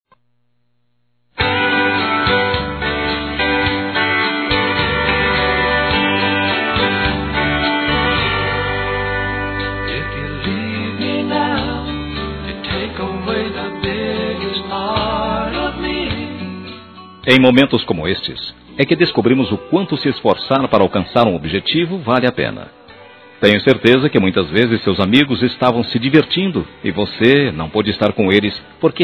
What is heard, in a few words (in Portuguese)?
Formatura Geral Masculino; Telemensagens 2017; Formaturas